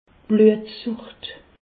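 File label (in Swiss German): "bluetsucht", Alsatian; medecine; anémie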